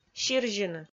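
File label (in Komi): "сирзьыны", Komi, female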